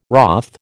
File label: "wroth", American